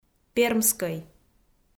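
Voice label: "пермскӧй", Komi, female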